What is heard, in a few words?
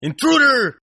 Toughguy Intruder English, Tough Hispanic Male; Exclamation; Saying "Intruder!" In English